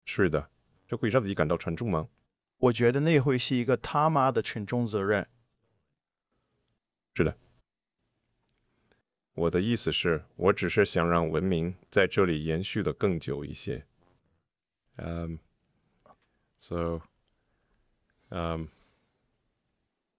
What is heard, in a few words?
chinese; Subformer